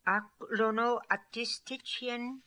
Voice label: "Aklonoatistitchian", Beelzebub pronounciations; Fourth Way